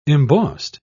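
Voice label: "embossed", British English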